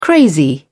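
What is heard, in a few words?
"crazy", English, British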